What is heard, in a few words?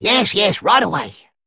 Male Dredger Attack